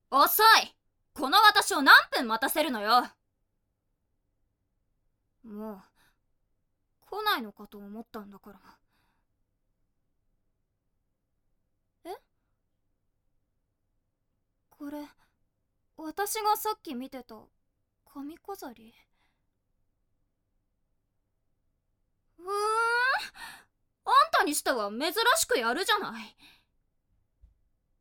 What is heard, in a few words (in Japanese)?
ツンデレ; jtb-ent-voice